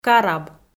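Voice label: "караб" Komi, female; kpv audio dict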